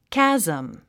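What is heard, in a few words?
"chasm", English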